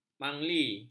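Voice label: "mangli", Malay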